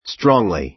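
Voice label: "strongly", American English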